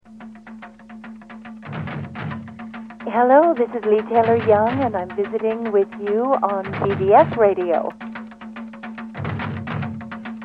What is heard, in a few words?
bbs radio promo; leigh taylor young